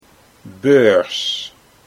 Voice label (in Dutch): "beurs" Dutch